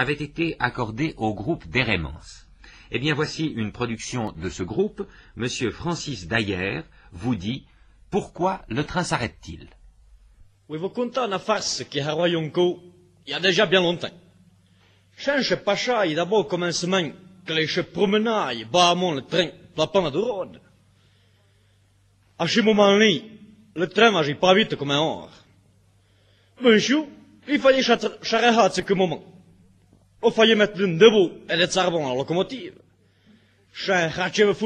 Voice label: patois d'Heremence; patois valaisans; Pourquoi le train s'arrete-t-il

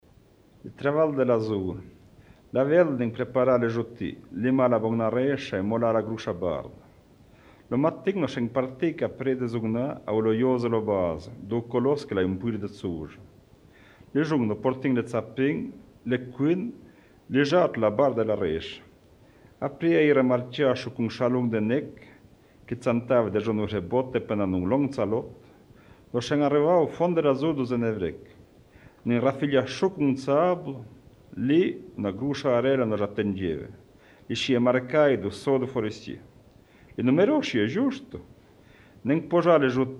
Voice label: Patois de Chandolin Anniviers histoire; patois valaisans; Le travail de la foret

